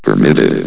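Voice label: "permitted", male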